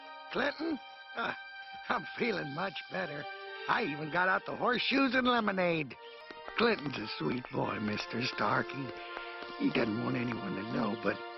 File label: old man; Jim Cummings